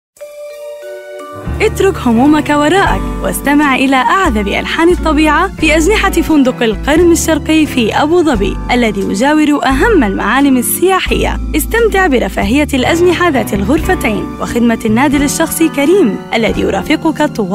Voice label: Arabic Corporate Narrations